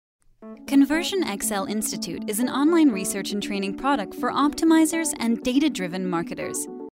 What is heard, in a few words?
female professional